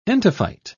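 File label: "entophyte", English, British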